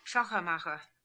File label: "Shachermacher", pronounciations; Fourth Way; Beelzebub